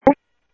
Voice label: Sheriff, scanner audio trunk; South Scottsdale; MCSO - EAST Sheriff-EastCnty